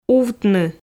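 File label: "увтны", Komi, female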